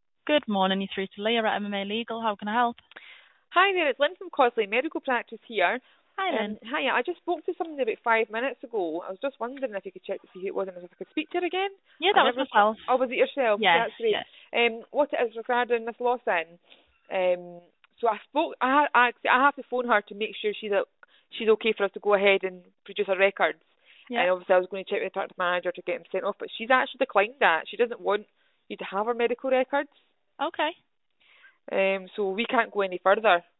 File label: call recording